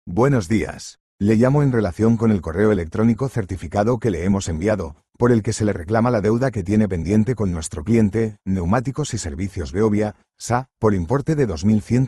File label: audio call